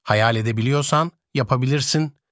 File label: turkish